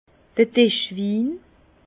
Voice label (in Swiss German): "dischwin", Bas Rhin; vin; vin de table ordinaire